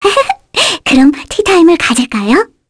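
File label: Vox Victory, Korean; Mirianne; kingsraid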